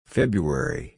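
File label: "February", English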